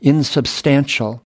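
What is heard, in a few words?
"insubstantial", US English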